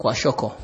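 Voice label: "kwashiokor", Nigerian Pidgin, male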